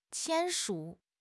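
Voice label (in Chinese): "签署", Chinese; HSK6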